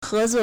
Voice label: "he2 zuo4", Chinese